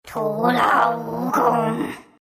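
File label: deny, chinese; dota2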